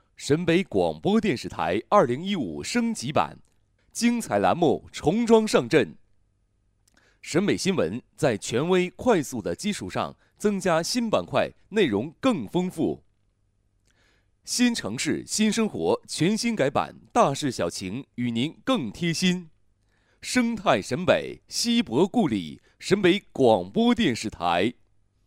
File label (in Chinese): 电视台改版宣传片; 沈北电视台